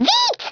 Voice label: "hurry", French